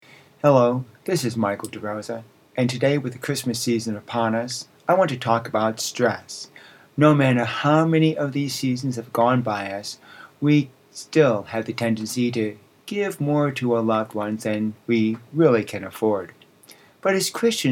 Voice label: Radio Sermonette; Matthew; Stress